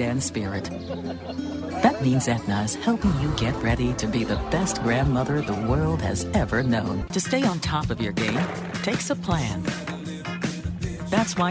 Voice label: Warm Caring Health